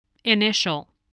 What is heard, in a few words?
"initial", spelling voice